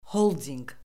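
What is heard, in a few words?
"holdinq", Azerbaijani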